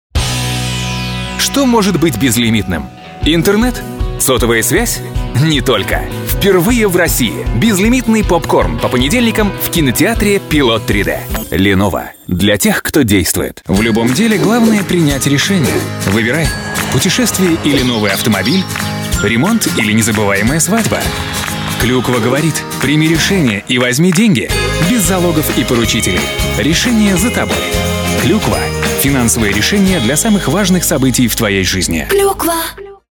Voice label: male russian commercial compilation